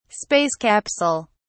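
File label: "space capsule", English, British English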